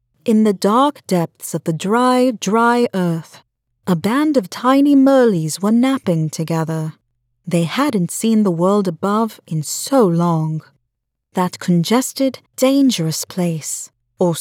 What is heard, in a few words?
Children British accent